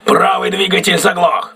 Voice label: voice message right engine damaged, crew ships russian